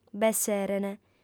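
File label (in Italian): "b'esserent", lingua sarda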